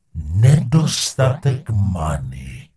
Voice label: Gnome Male err no mana; wowpreklad; czwow; GnomeMaleErrorMessages

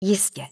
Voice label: Night Elf Female Standard NPC Greeting; wowpreklad; czwow

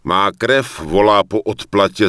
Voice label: Human Male Warrior NPC Farewell; wowpreklad; czwow

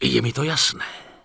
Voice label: Night Elf Male Official NPC Farewell; wowpreklad; czwow

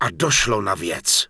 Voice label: Human Male Flee, Human Vocal Male; wowpreklad; czwow

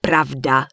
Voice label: Human Female Warrior NPC Farewell; wowpreklad; czwow

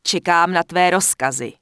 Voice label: Night Elf Female Wait Here; wowpreklad; czwow; NightElfVocalFemale

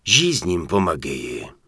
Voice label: Human Male item cooldown; Error Messages; wowpreklad; czwow; MaleErrorMessages

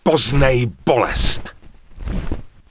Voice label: Human Male Charge; wowpreklad; czwow; HumanVocalMale

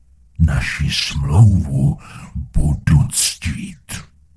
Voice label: Gnome Male Congratulations, Gnome Vocal Male; wowpreklad; czwow

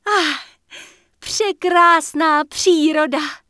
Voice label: Night Elf Female Sentinel Greeting; NPC; wowpreklad; czwow

